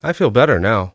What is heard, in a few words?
I feel better now, Adult Male Speech